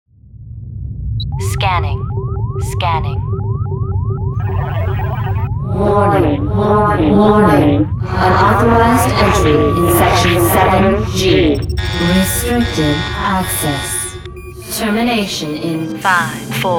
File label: commercial, Dialog Services; SAG / AFTRA